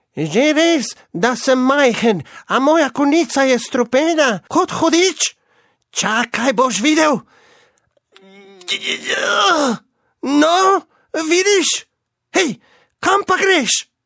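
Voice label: slovenian male character; voice demos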